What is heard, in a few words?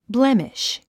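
"blemish", English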